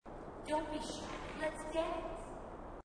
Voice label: female marine, marine tough

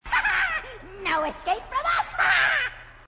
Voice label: grunt; h2dialogue; grunt crazy

